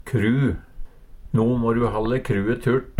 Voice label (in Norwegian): kru, Numedalsmål